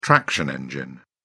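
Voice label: "traction engine", British English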